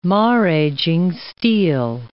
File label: "maraging steel", English